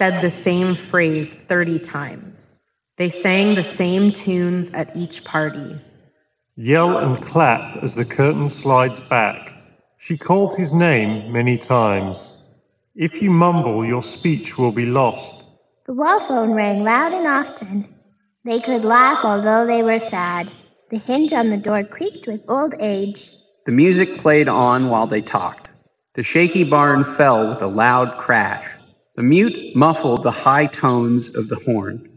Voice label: Reverb microphone sample; Steelseries Arctis Gamebuds